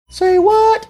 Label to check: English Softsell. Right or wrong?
Wrong